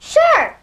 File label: "sure", girl